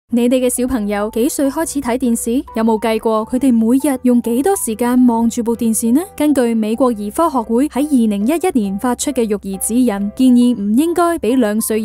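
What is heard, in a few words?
woman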